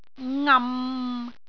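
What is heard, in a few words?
"ngam6", Cantonese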